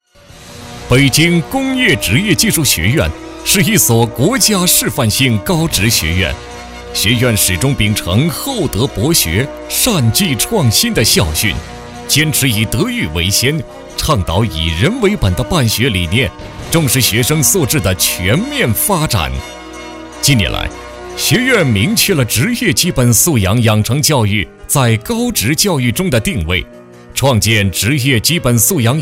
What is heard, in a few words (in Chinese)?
男100-学校 快速 朝气, 国语男声配音; 北京职业技术学院; 央视老师